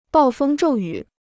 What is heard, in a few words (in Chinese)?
"暴风骤雨", Chinese